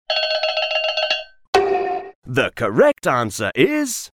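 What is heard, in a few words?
"timeout", English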